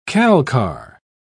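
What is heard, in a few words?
"calcar", English, British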